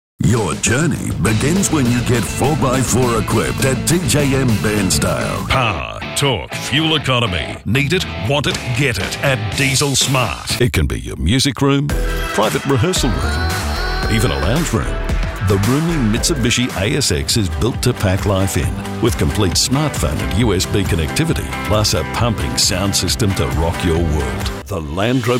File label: english australian automotive